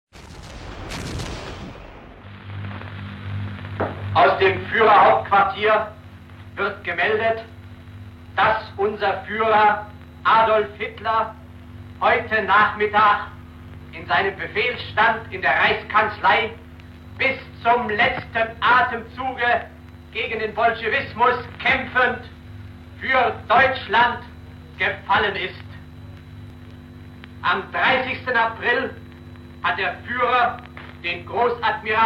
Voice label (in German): Death Announcement; Hitlers; RRG; bruckner third reich